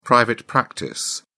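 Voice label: "private practice", British English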